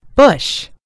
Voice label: "bush", en GB; gcompris

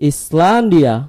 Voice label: "iceland", id; gcompris-data; geography

